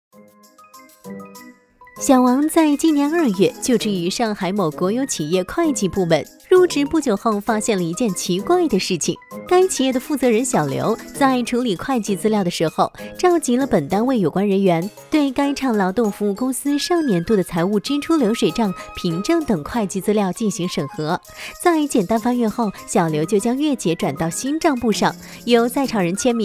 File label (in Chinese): 诙谐磁性, 会计; 飞碟说